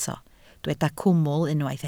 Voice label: "cwmwl", Welsh

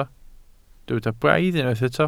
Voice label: "braidd", Welsh

